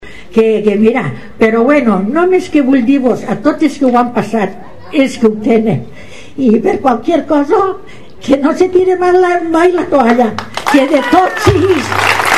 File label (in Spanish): TESTIMONIO